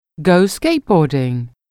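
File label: "go skateboarding", English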